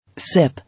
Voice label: "Sip", English

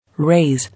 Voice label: "Raise", English

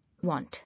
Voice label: "Want", English

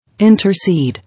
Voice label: "Intercede", English

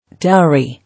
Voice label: "Dowry", English

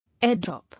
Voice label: "Airdrop", English

